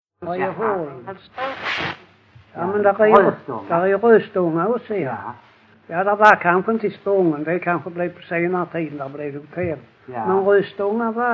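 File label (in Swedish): Lackalänga Skåne, dialekter svenska sagor; Folkminnen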